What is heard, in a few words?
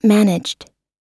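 "managed", American English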